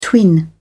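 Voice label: "twin", English, British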